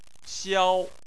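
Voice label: "xiao", Chinese, first tone; Chinese Surnames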